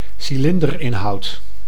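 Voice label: "cilinderinhoud", Dutch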